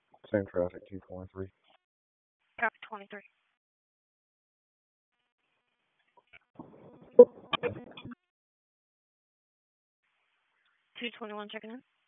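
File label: Raleigh Police Northeast District Dispatch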